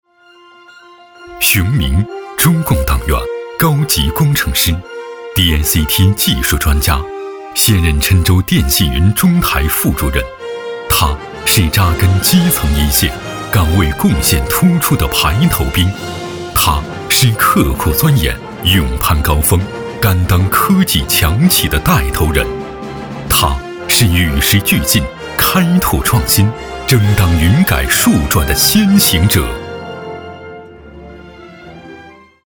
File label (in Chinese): 男 专题 人物 片 大气讲述